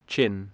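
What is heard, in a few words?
"chin", English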